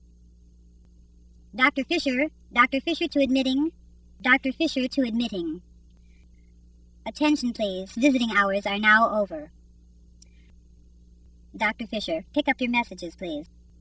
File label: nurse page